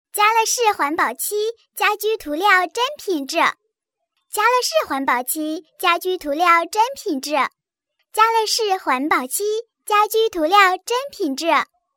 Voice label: 女, 童声; 嘉乐氏环保漆

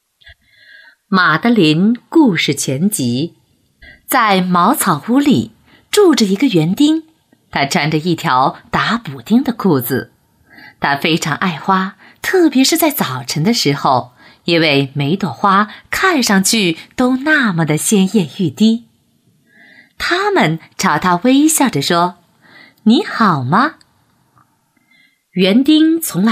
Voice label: 女 儿童故事; 玛德琳故事全集节选

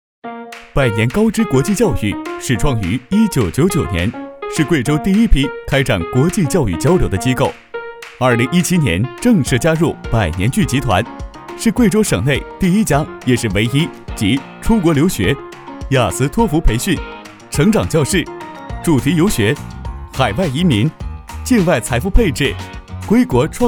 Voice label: 男 MG 活跃干练; 百年高知国际教育

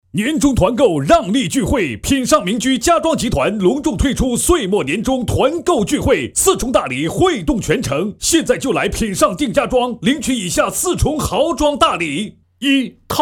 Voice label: 男, 促销家居装修

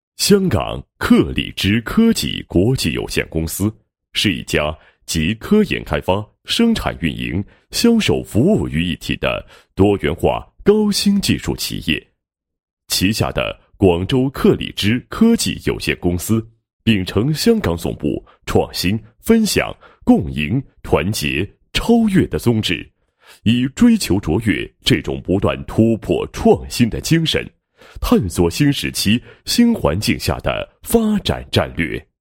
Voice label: 男-品牌宣传; 牙贝健

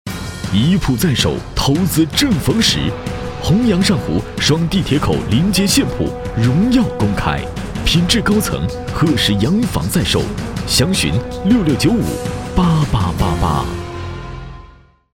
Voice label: 广告 地铁现铺, 国语男声配音

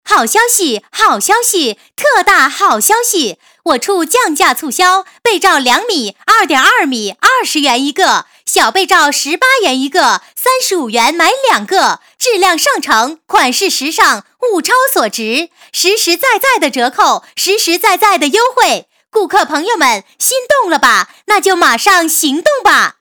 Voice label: 女 促销 床罩促销, 国语女声配音

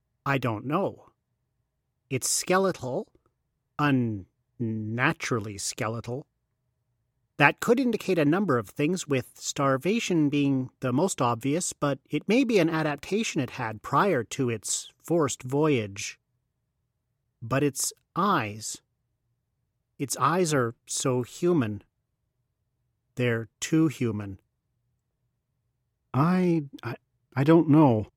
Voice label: 外籍 电影视频游戏角色 Characters Audition; Sion

